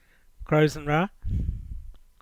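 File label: "Crows An Wra", English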